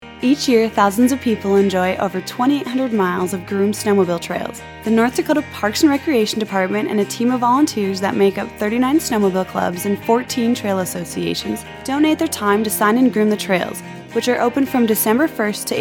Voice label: Radio PSA